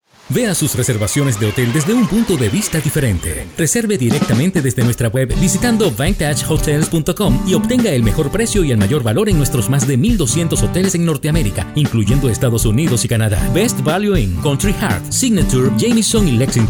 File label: Spanish; VANTAGE HOTEL